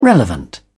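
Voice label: "relevant", British English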